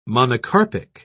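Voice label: "monocarpic", English, British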